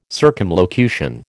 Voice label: "circumlocution", American

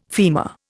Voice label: "femur", Indian

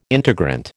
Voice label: "integrant", American

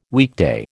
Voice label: "weekday", American

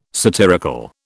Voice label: "satirical", British

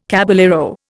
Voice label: "caballero", Indian

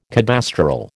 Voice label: "cadastral", American

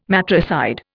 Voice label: "matricide", Indian

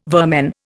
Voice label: "vermin", Indian

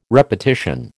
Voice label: "repetition", American

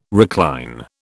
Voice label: "recline", British

